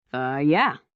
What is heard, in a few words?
fem